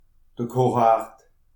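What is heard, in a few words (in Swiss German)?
"kiche", Alsatian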